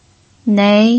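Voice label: "nei", pinyin, second tone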